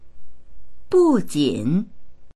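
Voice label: "bu jin", Mandarin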